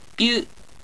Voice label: "byu", Japanese